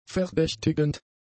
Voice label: "verdächtigend", German; adjectives

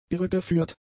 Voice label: "irregeführt", german; adjectives

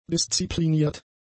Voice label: "diszipliniert", German; adjectives

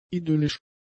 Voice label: "idyllisch", German; adjectives

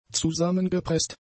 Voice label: "zusammengepresst", German; adjectives

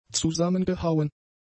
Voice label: "zusammengehauen", German; adjectives

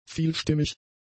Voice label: "vielstimmig", German; adjectives

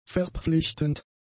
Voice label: "verpflichtend", german; adjectives

